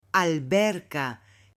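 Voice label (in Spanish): "ALBERCA", female; OBJETOS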